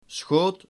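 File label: "schoot", Dutch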